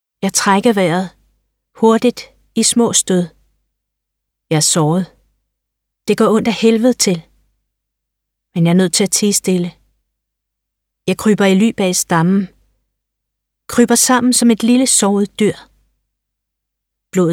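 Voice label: Danish, Audiobook, female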